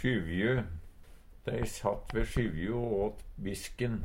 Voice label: sjyvju, Numedalsmål; Substantiv hokjønn; Dei sattt ve sjyvjo o åt bisken.

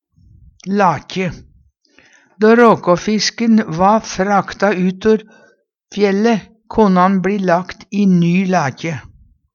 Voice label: lakje, Numedalsmål; Då råkåfisken va frakta ut or fjelle, vart'n jamt lagt i ny lakje.

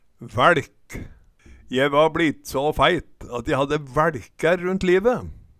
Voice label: vaeLk, Numedalsmål

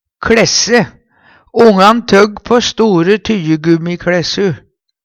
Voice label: kLaesse, Numedalsmål

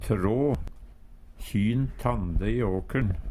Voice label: "trå", Numedalsmål

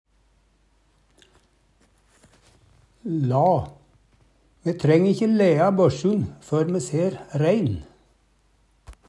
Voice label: laea, Numedalsmål; Me treng ikkje læa børsun før me ser rein.; Jakt, fangst, fiske

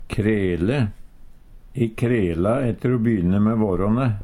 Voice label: krele, Numedalsmål; Verb

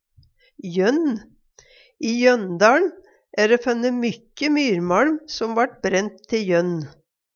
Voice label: jonn, Numedalsmål

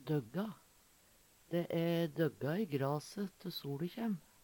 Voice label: "døgga", Numedalsmål; Adverb

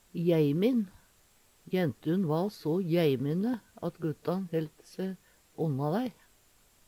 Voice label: jeimin, Numedalsmål

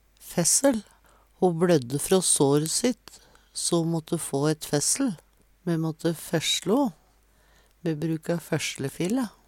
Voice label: "fessel", Numedalsmål

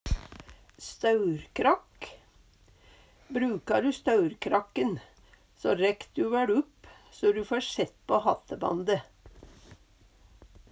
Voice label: staurkrakk, Numedalsmål; Staurekrakk laga av brei plank med to bein i eine enden

